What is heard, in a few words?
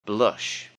"blush", English; Jewelry and Cosmetics